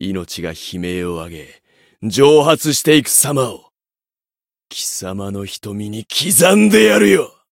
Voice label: story jp